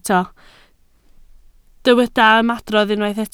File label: "ymadrodd", Welsh